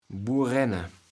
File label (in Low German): "Burenne", kaevels platt